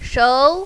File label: "shou2", mandarin, female